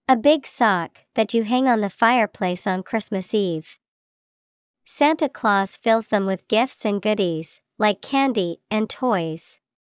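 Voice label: stocking, English vocabulary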